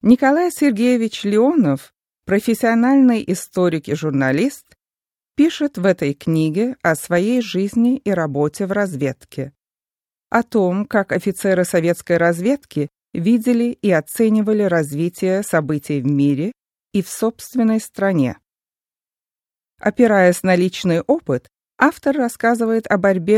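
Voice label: Russian, female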